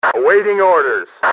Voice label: await orders, radio male; XBOX